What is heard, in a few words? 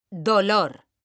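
"DOLOR", Spanish; mekanta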